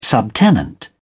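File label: "subtenant", British English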